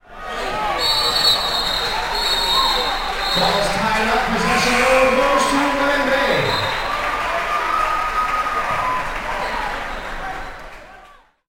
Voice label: Basketball Announcer Speaking with Crowd Cheering; Crowd and stadium ambience, announcers, cheering